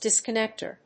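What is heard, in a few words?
"DISCONNECTOR", English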